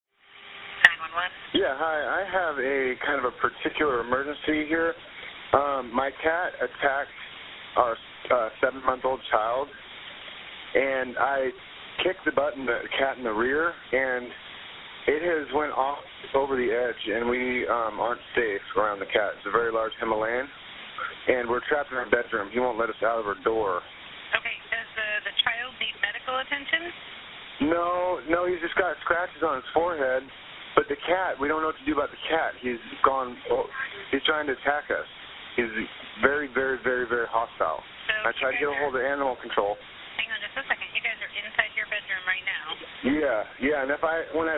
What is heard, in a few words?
911 call